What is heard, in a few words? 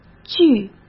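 "据", Mandarin Chinese; hsk; chinese-flashcards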